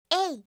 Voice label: "a", alphabet